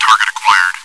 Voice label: chitchat, bot, voice commands; svencoop

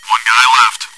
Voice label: chitchat, bot, voice commands; svencoop

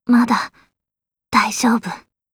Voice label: help, voice commands; svencoop; Blanc

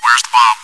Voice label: chitchat, bot, voice commands; svencoop

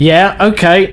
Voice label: Yes, male, voice commands; svencoop

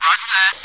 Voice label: radio yes

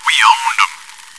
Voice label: cheer; svencoop

